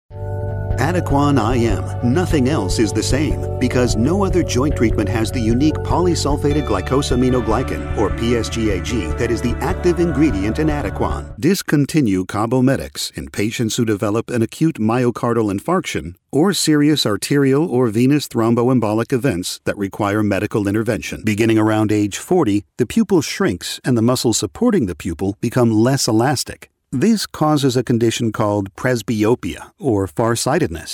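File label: narration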